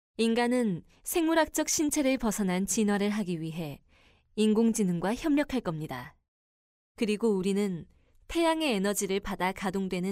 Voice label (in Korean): 다큐